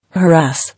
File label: "Harass", English